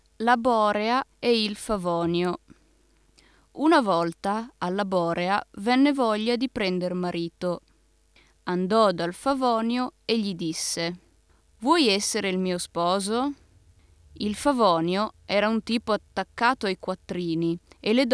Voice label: tale